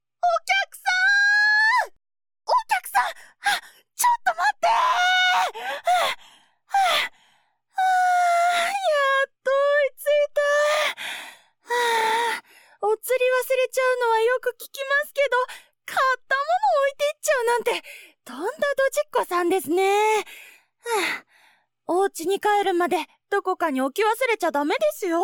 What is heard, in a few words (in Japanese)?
明るい系 サンプル